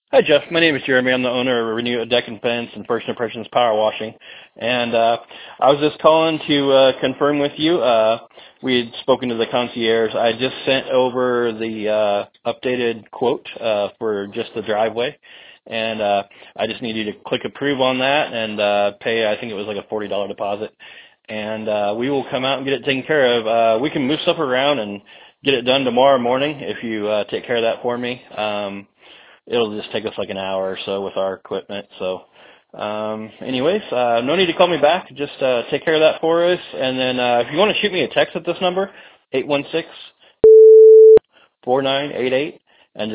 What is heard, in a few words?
contractor voicemail